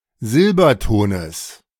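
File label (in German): "Silbertones", German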